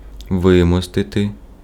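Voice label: Ukrainian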